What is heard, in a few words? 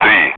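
Speech, Russian, air traffic control; Digits; DCS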